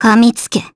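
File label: Vox Skill, Japanese; Gremory; kingsraid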